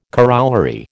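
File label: "corollary", American English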